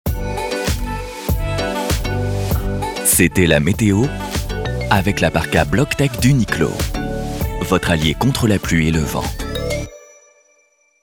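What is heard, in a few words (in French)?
droit elegant, voix off parrainage, homme; UNIQLO chaleureux, élégant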